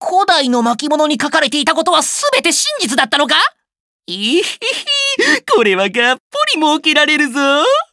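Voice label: info, Japanese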